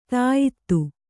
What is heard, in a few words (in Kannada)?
"ದ್ಯುತಿ", Kannada